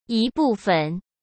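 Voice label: "一部分", Mandarin Chinese; chinese-flashcards; hsk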